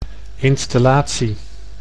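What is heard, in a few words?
"instillatie", Dutch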